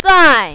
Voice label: "zai4", mandarin, female